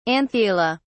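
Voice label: "anthela", British English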